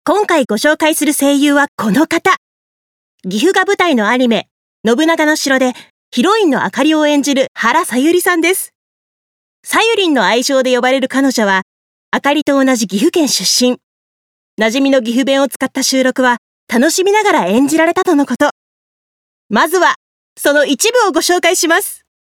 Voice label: 番組ナレ

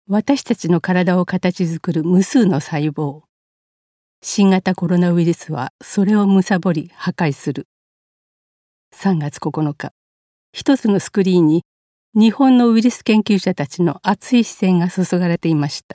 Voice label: 報道番組

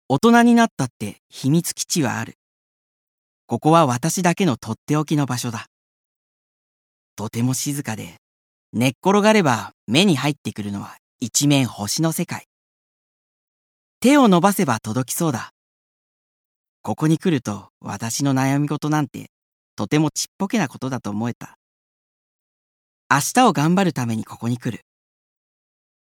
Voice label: 朗読

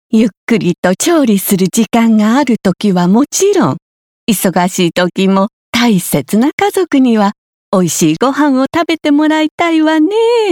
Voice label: 調理器具CM

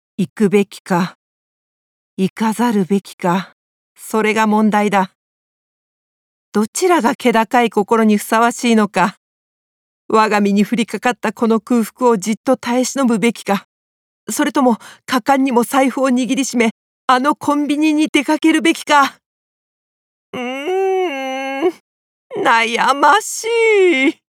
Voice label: 独白, 栃木弁

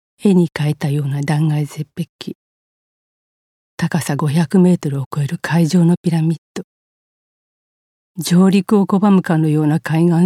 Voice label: 教養番組ナレ

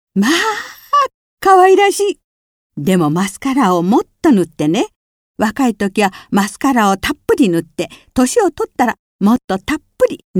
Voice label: おしゃれアドバイス, 日田弁

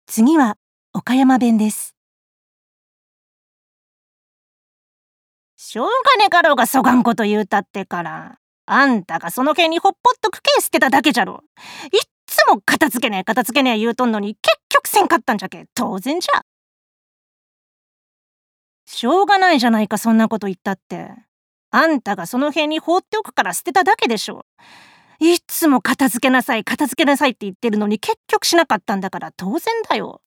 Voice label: 方言

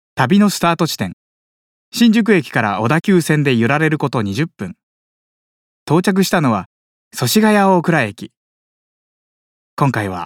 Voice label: 街歩き番組ナレ

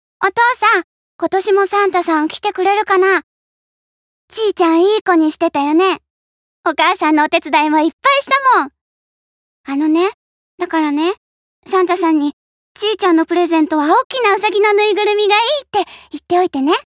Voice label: 少女

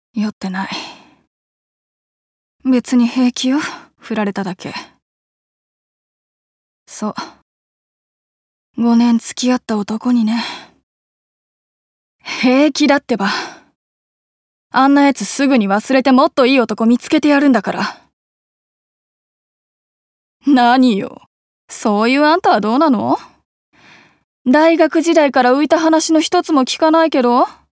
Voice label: ヤケ酒女性

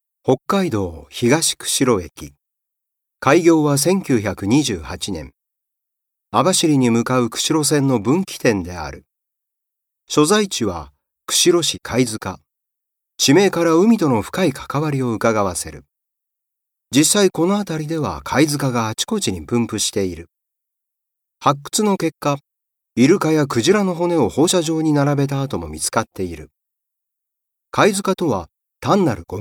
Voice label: 紀行番組

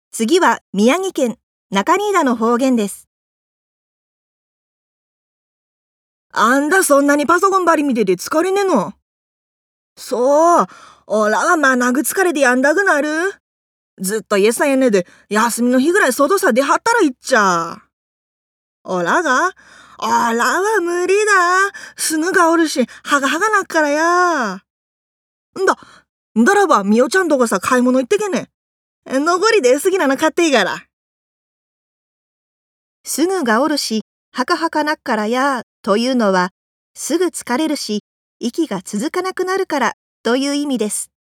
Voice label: 方言

